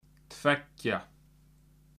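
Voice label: "tveggja", Icelandic; tolur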